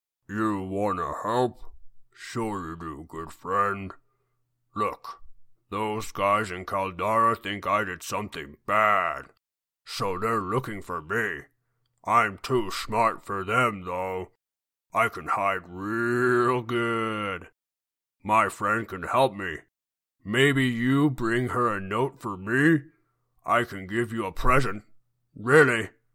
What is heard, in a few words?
orc, voice auditions